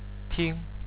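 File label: "ting", Chinese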